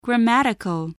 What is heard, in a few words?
"grammatical", spelling